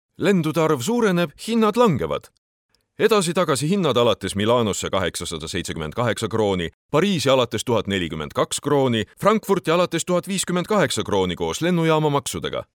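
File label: estonian male narration; voice demos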